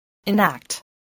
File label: "enact", spelling